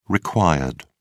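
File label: "required", British English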